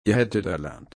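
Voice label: "erlernen", German, slow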